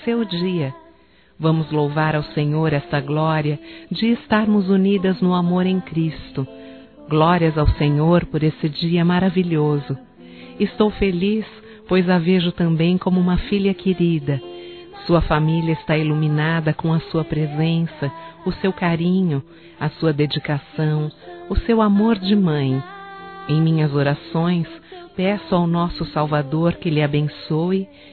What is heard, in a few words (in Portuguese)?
Sobrinha Feminino, Telemensagens, Dia das Mães; Telemensagens 2017